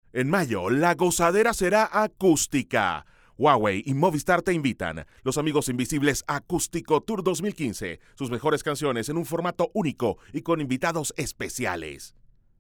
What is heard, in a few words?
Radio spot; Huawei